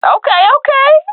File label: cheer; vo; Counter Strike Model Pack; CS:GO; swat epic